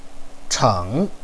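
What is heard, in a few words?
"cheng3", Mandarin Chinese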